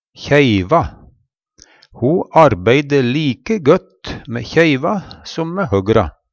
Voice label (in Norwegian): kjeiva, Numedalsmål; Substantiv hokjønn